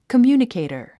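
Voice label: "communicator", English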